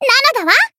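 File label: Voice attack, Japanese; thillelille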